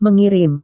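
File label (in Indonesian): "mengirim", Indonesian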